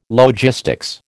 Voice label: "logistics", American